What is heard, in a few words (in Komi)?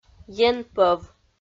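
"енпӧв", Komi, female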